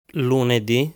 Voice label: "Lunedì", Italian